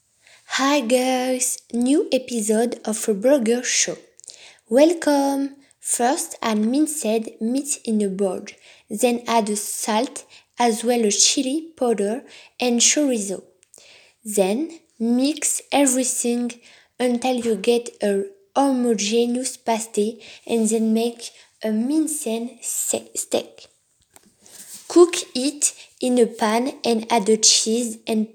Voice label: hamburger voice over